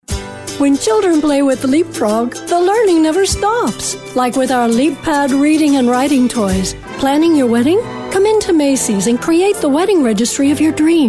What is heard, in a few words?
Commercial